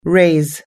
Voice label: "raise", us english

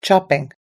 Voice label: "chopping", us-english

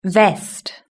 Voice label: "vest", british english

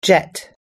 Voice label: "jet", us-english

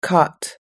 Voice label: "cot", us-english

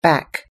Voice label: "back", us english

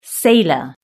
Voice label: "sailor", British English

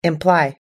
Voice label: "imply", US English

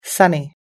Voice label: "sunny", us-english

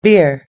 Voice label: "beer", us english

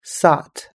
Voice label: "sought", US English

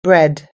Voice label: "bread", us english

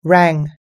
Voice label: "rang", us-english

